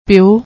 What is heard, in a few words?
"peoo", Punjabi